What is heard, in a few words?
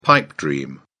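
"pipe dream", British English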